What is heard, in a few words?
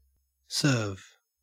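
"serve", Australian English; pronunciations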